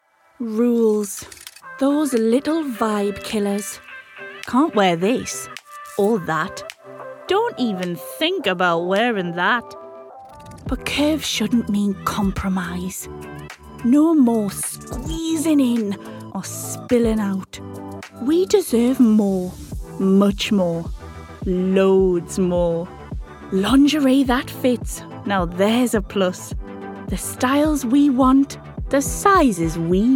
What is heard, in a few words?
Commercial